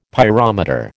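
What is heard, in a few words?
"pyrometer", American English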